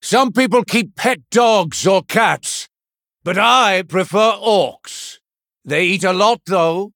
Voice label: Male Human Idle; Blackmoore; Thrall

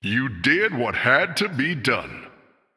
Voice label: Male Human After Selling

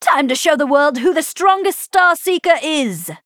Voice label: Female Night Elf Bark

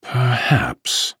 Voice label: Thinking

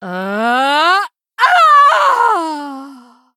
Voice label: Female Blood Elf Death

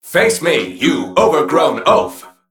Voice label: Male Mech Play

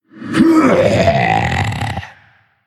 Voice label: Female Tauren Undead Play

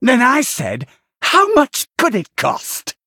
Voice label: Male Venthyr Play

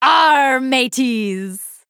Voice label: Female Night Elf PIRATE DAY

